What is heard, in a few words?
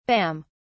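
"bam", British English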